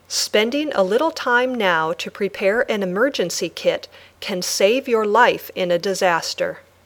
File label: Dictation